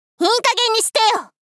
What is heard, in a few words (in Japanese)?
いい加減にしてよ！ 少女×中音域